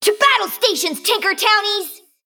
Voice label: female mechagnome, Emote Greetings